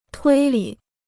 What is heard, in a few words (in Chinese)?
"推理", Chinese; tuī lǐ; reasoning; inference